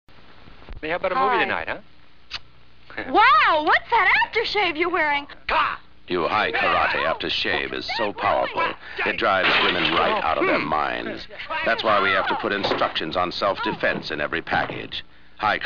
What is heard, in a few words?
vintage TV spot; A classic vintage TV spot for Hai Karate cologne.